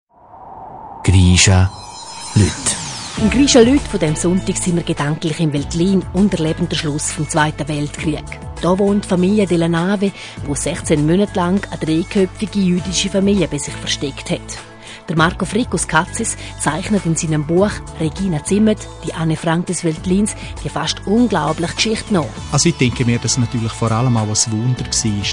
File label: Trailer